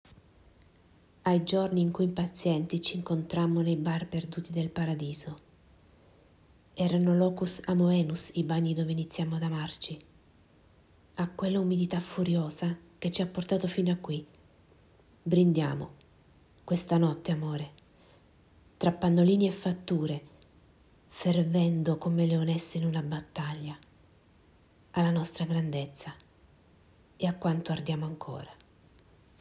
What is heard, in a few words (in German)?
Italienisch